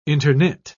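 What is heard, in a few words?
"interknit", English, British English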